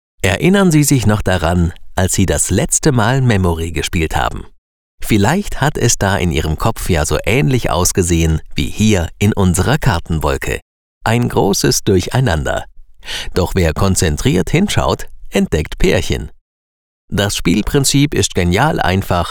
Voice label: Sprecher audioguide; Ravensburger

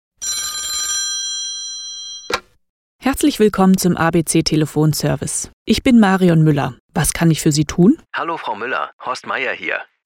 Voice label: sprecher elearning hotline

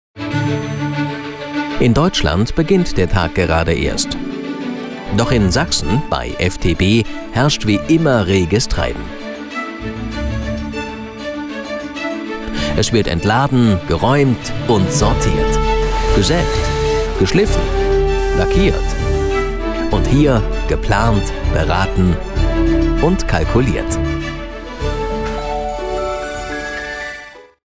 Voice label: sprecher imagefilm; fenster&tueren

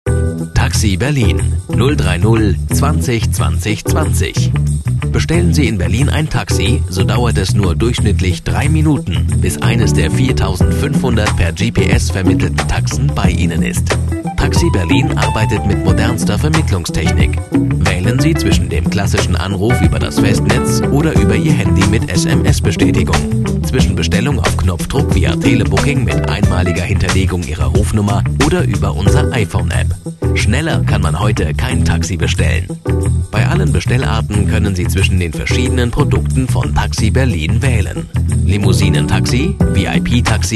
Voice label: Sprecher imagefilm; taxi berlin

